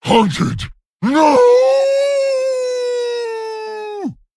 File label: Male Worgen Wolf Death